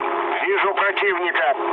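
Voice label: Russian enemy detected mood low, speech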